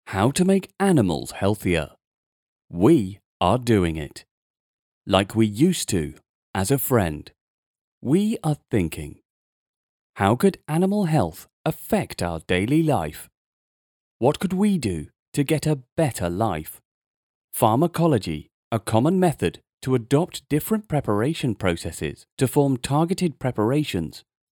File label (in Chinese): 英文版; 公益宣传案例